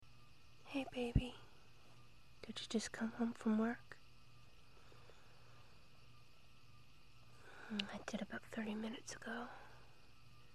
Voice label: [F4A] Lie Down With Me [sweet][pre-nap pillow talk][puppy noises]